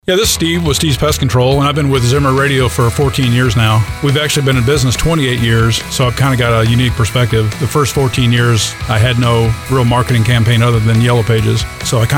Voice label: Promo Client Testimonial; ZRG; Steves Pest